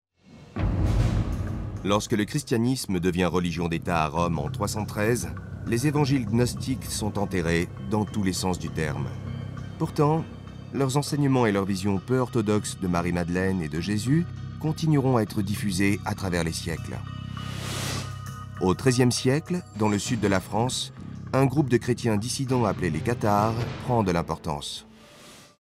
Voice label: Narration